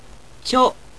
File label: "cho", Japanese